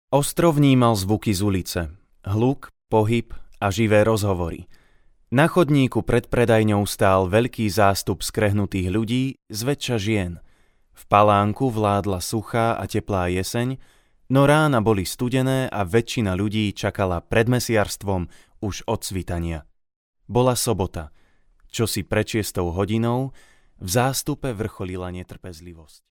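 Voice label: Slovak, male, narration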